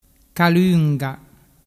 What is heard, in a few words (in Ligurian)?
"calunga", dialettu